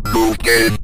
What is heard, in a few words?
new game, Synth Voice; Kenney's Sound Pack; Synth Voice 1